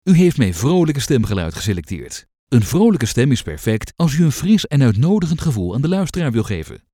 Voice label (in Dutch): vrolijk